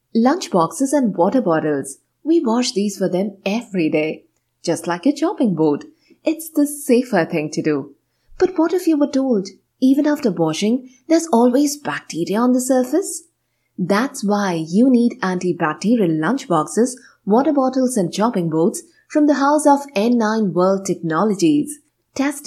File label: English Radio Commercial